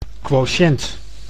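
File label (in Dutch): "quotient", Dutch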